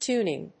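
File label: "tuning", English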